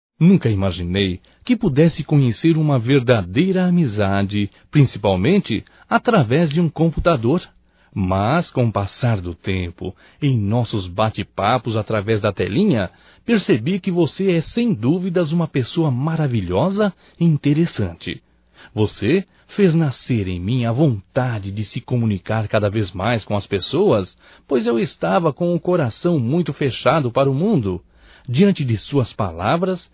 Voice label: Aniversário Amiga Virtual Masculino; Aniversarios; Telemensagens 2017

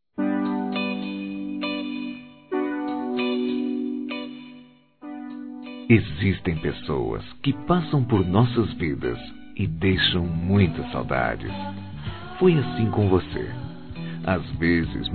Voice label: Romantica Aniversário Ex Namorado, Telemensagens fem

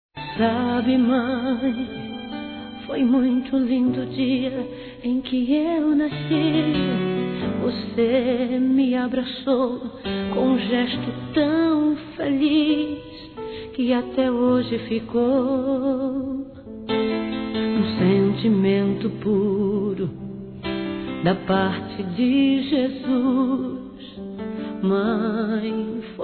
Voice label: Trilha HOMENAGEM A MÃE, Gospel, fem; Telemensagens; Telemensagens 2017

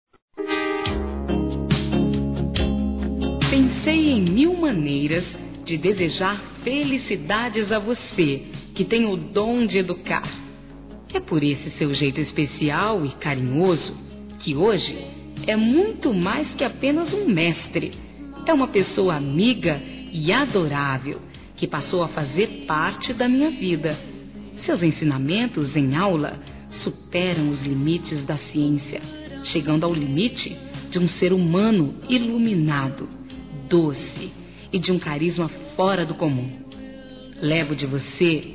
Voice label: ANIV. PROFESSOR F; Aniversários; Aniversários diversos; fem

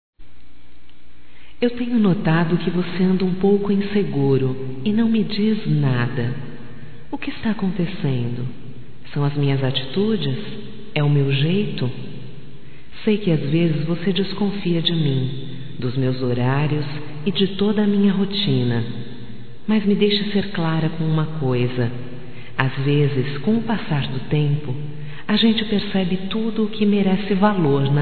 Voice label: Confie em Mim Feminino, Telemensagens

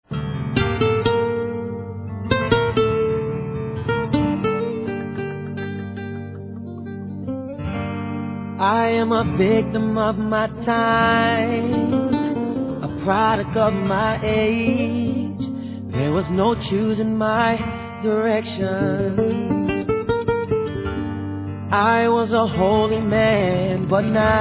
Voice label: Te Gosto Muito Masculino

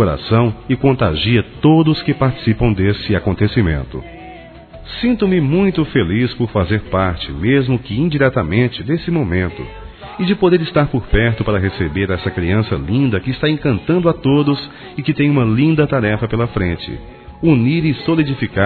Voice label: Maternidade Masculino; Telemensagens; Telemensagens 2017

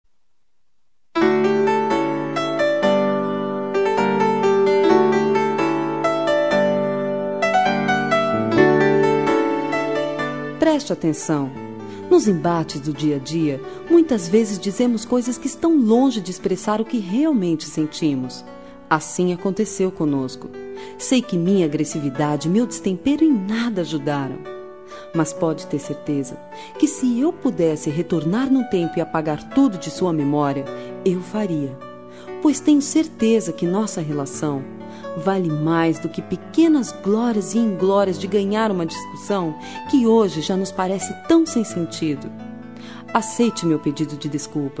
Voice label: ROM RECONCILIACAO FEM, Telemensagens

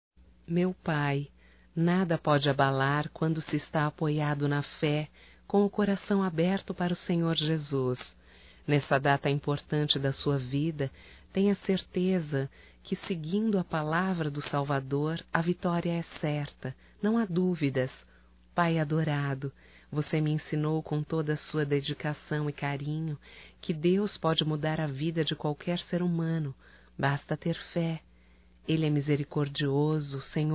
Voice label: Aniversário Pai Evangélico Feminino; Aniversarios; Telemensagens; Telemensagens 2017

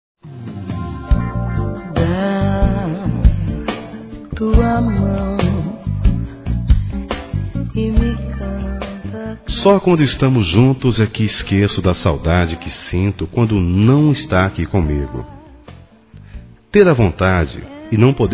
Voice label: Variados Româtica para Amante Feminino, Telemensagens; Românticas

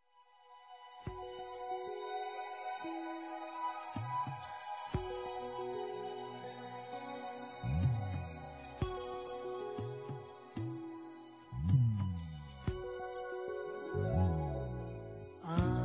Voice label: Aniversário Neto Feminino; Aniversários; Aniv Familiar

